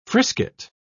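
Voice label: "frisket", British English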